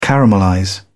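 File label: "caramelize", English, British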